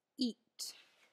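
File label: "eat", English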